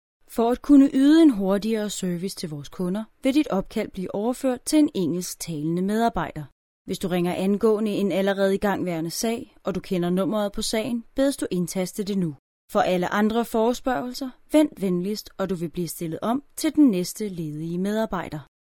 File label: female danish voice over narrative